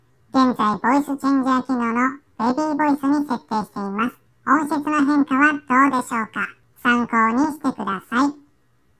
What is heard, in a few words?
maono wireless microphone